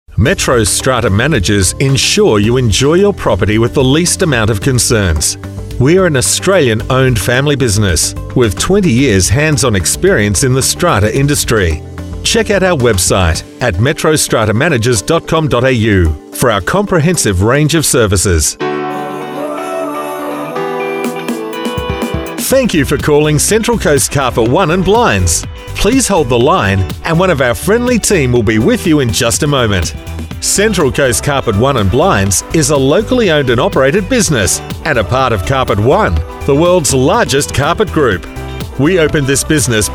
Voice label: Male Talent